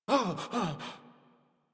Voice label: Operator Voice; Operator Mission; Generic Land